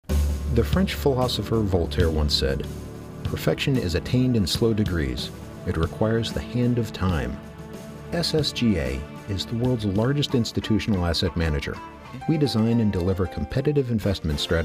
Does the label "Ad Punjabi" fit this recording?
No